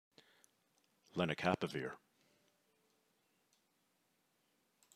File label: Lenacapavir Voice Recording